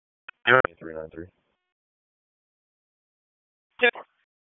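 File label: Police District Dispatch, p25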